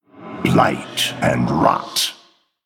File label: Male Night Elf Attack